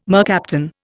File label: "mercaptan", Indian